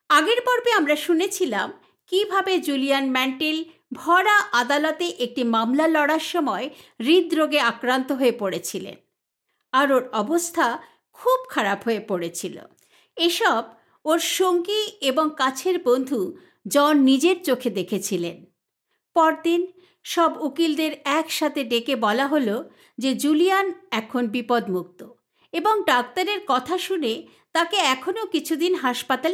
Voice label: audiobook bengali

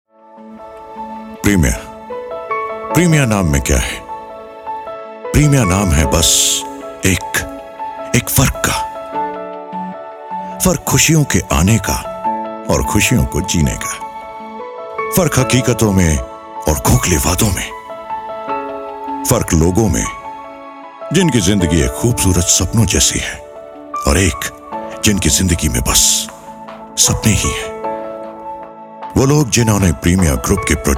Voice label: promo hindi

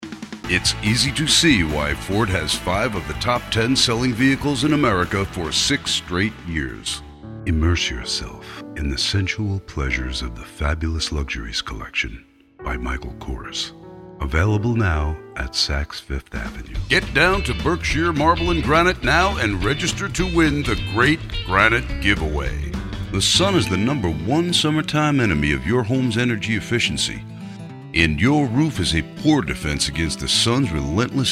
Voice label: Commercials

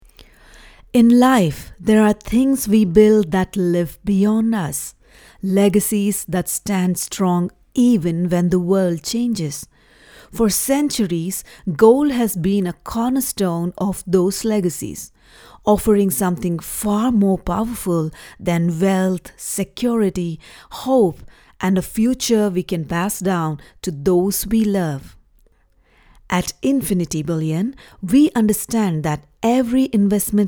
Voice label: English; Infinity

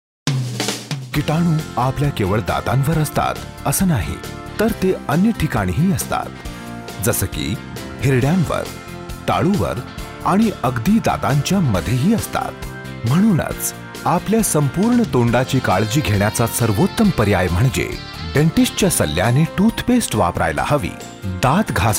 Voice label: promo marathi; Hi Baritone timbre. Vocal styles : professional and authoritative.

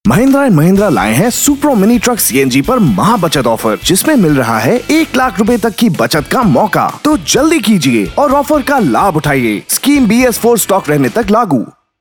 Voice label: hindi

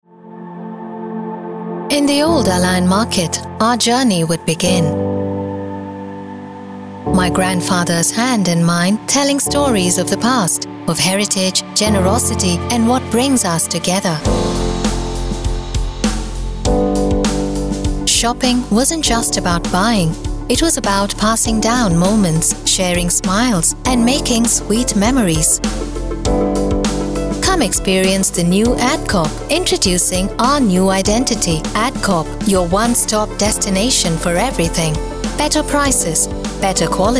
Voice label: Commercial Polished English

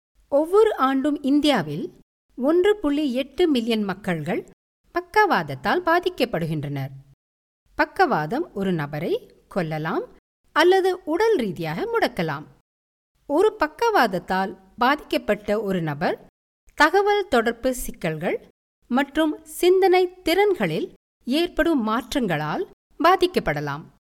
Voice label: TAMIL

